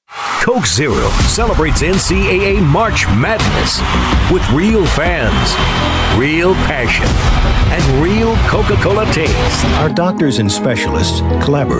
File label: Commercials